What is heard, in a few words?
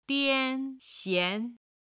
"dian1 xian2", Chinese